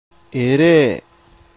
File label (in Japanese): "eree", hougen; えれぇ; 「疲れた」、「しんどい」の意。; 方言